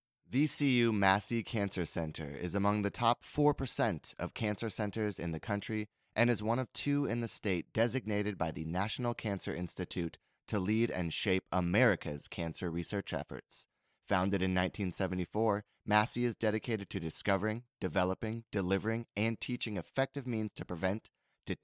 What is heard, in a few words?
voiceover; VCU; Cancer Center; HEALTHCARE